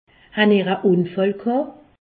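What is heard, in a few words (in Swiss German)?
"unfall", Alsace, Haut Rhin; medecine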